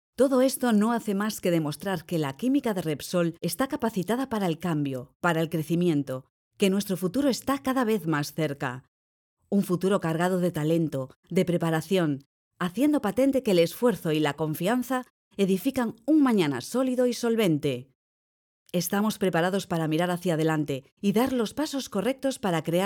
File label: Quimica Spanish; Demo SR Quimica Repsol Spanish